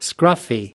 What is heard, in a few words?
"scruffy", us